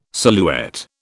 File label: "silhouette", British